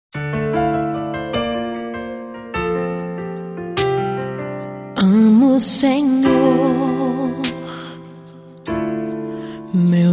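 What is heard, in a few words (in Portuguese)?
Aniversário Evangélico Sobrinho Masculino, telemensagem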